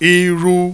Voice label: "iru", Yoruba, mid mid tone; Nine Possible Combinations; Initial Mid tone combinations

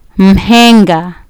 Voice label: "mhenga", Kiswahili

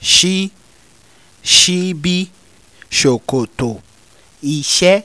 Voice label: sh sh sh, Yoruba; CONSONANTS; sibi sokoto ise

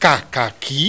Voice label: "kakaki", Yoruba; CONSONANTS; kakaki-keke-eko

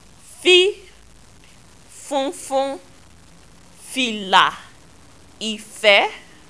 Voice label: "f fff", Yoruba; CONSONANTS; funfun-fila-ife

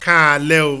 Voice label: Kaaale o, Yoruba

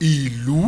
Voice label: "ilu", Yoruba; ORAL VOWELS; duru-ilu-suuru

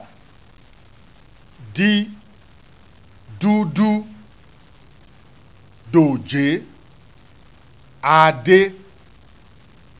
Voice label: "d ddd", Yoruba; CONSONANTS; dudu-doje-ade

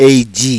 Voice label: "Eji", Yoruba

